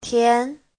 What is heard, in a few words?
"tian2", Chinese; 甜; ngọt